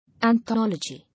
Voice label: "Anthology", English